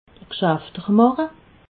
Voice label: "gschaftermache", alsace

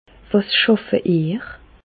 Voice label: schaffe, Bas Rhin; medecine; quelle profession exercez vous

